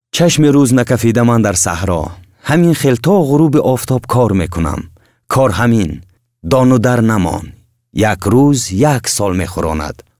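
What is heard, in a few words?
Tajikistan male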